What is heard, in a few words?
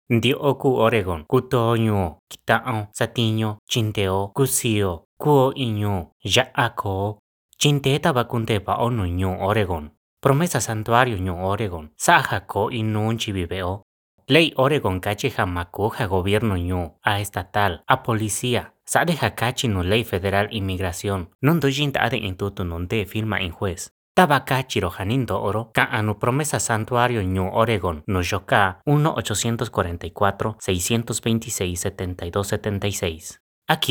Mixteco Alto; Oregon’s Sanctuary Laws; Sanctuary Promise